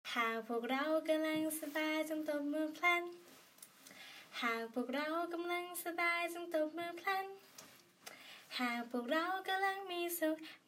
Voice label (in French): thailandais